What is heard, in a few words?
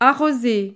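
"arroser", French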